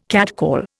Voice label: "catcall", Indian